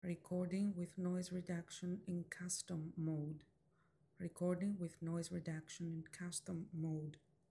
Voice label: Noise Reduction Custom Mode, wireless lavalier microphone; maono ai wave t5